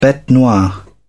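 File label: "bete noire", British English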